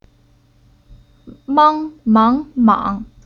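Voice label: "Mang", Chinese syllable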